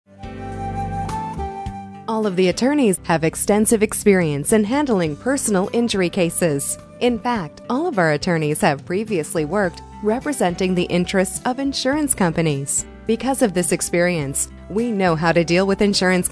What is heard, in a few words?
Law Office Female On Hold